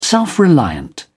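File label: "self-reliant", British English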